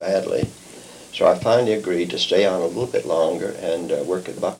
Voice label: Oral history with 71 year old male Kentucky, american english dialect